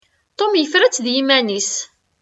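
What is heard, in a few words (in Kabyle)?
Tom yefra-tt d yiman-is., Kabyle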